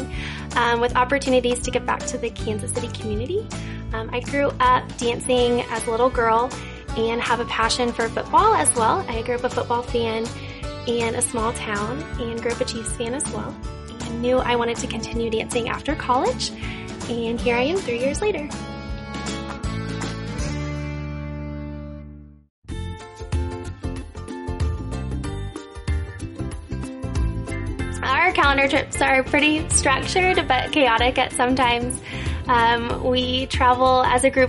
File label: Cheer Chatter